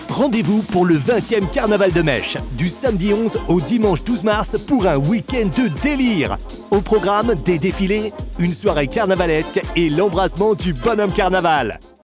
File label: commercial tv; carnaval de maiche 2023